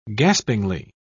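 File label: "gaspingly", British English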